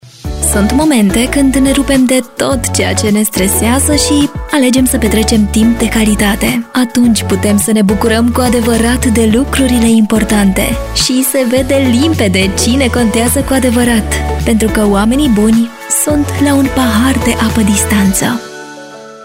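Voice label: romanian promos, Mujer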